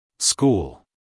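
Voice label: "school", American English, male; everyone-can-use-english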